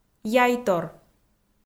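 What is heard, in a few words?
"яйтор", Komi, female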